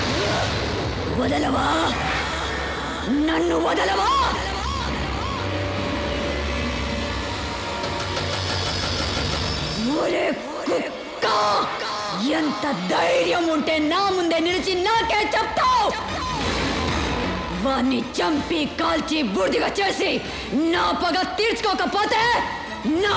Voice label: Horror Voice; Jothika